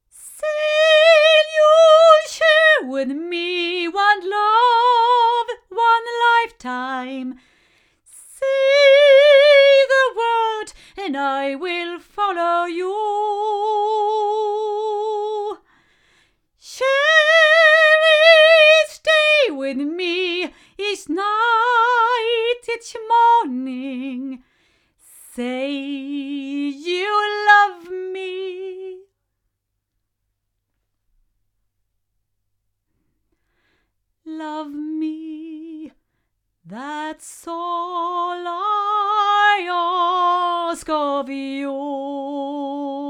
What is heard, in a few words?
female; GoldenAge R2; microphones